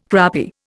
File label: "crappy", Indian